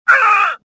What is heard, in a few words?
pain; XBOX; worker